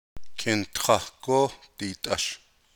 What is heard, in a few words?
navajo; Kintahgóó diit’ash.; Proposal, affirmative; 1st person inclusive, Let’s!; Navajo Imperatives